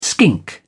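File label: "skink", British English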